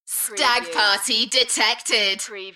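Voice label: British female Stag party detected Enthusiastic; beanstalk audio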